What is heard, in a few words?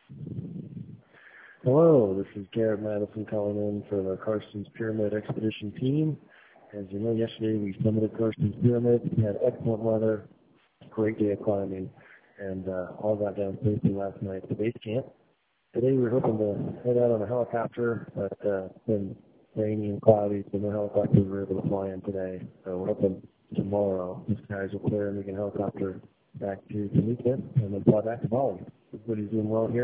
voicemail